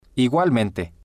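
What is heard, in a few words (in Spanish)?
"igualmente", spanish, male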